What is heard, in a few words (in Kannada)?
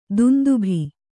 "ದುಂದುಭಿ", Kannada